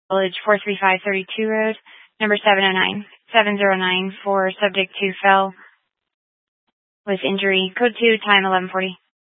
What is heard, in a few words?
Fire Department Dispatch